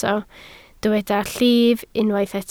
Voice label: "llif", Welsh